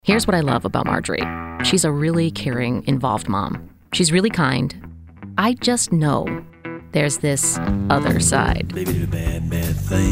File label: Radio Imaging